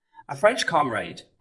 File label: Voice Acting, es